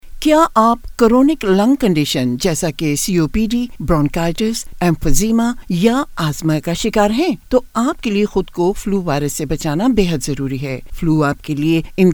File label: NHS FLU Urdu